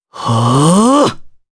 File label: Vox Casting jp; voices; heroes; Pavel; kings raid